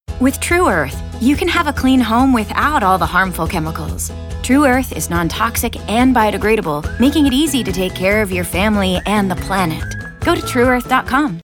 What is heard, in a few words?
Conversational